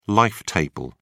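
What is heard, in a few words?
"life table", British English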